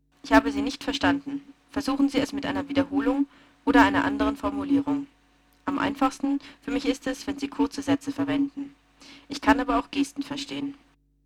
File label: Sine fast; SmartKom; Prototypen Stimme